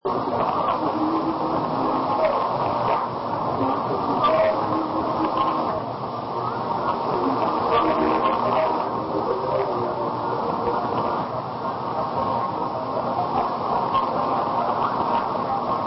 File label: Radio New Ireland 3905kHz